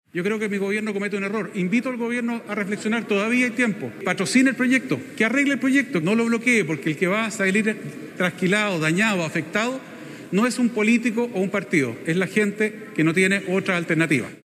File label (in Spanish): cuña